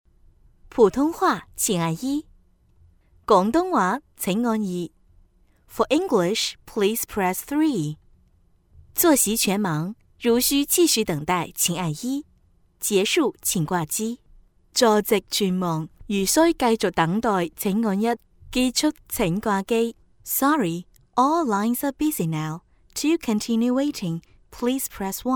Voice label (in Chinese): 女 中粤英三语 彩铃